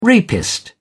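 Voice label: "rapist", British English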